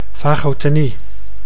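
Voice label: "vagotenie", Dutch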